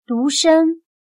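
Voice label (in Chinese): "独生", Chinese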